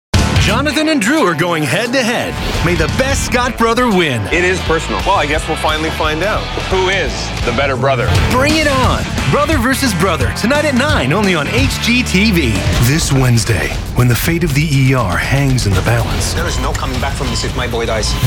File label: Promo